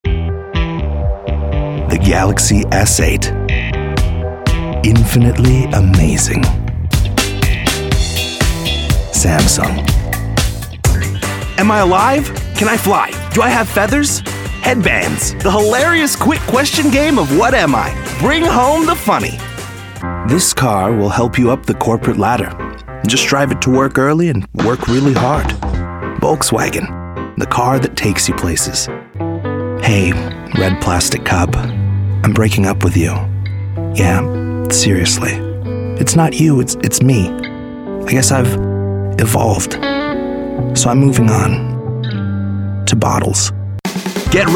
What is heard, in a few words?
Commercial, voiceover